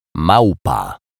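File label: "malpa", Polish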